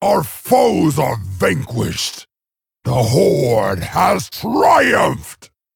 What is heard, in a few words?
Male Orc Loss; Orgrim; Uther